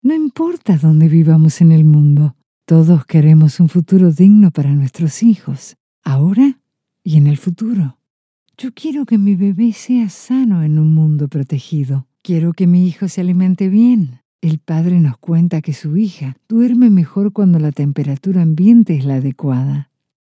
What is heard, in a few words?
spanish latin american medical narration